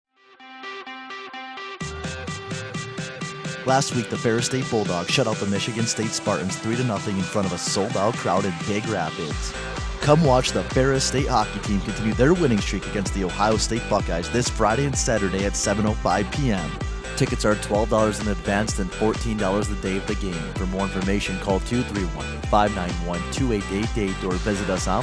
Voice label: Radio Commercial; OSU